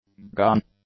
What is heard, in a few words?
"gun", English; nouns